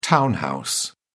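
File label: "town house", British English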